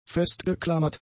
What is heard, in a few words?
"festgeklammert", german; adjectives